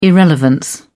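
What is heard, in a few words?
"irrelevance", British English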